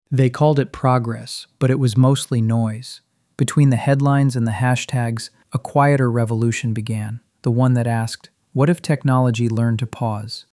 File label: The Educated Dubliner